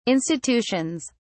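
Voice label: "institutions", British English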